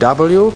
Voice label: male brit w, Male British Alphabet

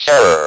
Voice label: "terror", speak and spell; WORDS

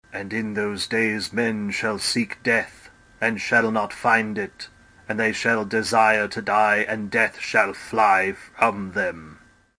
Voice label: sinister preacher reading revelations; Evangelists and Preaching and Religious

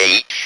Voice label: "H", speak and spell; ALPHABET

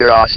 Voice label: "DRAWS", votrax; VIDEO GAMES

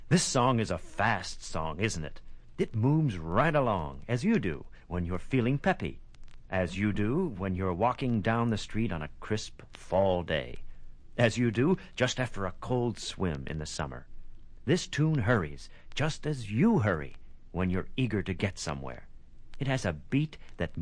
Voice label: this is a fast song, male spoken word; MALE MISC

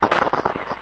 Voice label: radio voice, Distorted Radio Voice

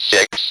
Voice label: speak and spell "SIX"; NUMBERS

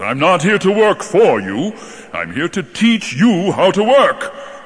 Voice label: "here to teach you how to work", male; MALE MISC

